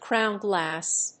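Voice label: "crown glass", English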